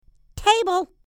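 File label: "table", English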